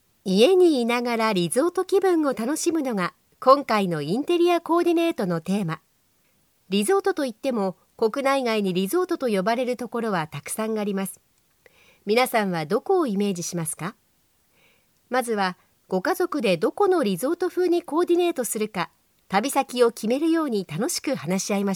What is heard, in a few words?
Japanese voiceover, locutora japonesa